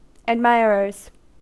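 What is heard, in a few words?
"admirers", US English; pronunciations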